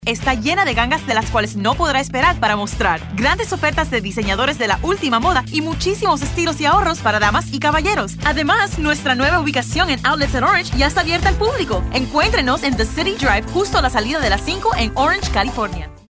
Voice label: Spanish; Sales